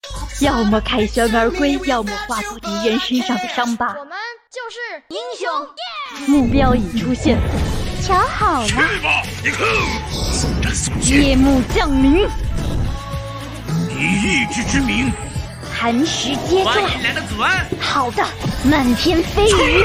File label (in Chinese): 游戏人物配音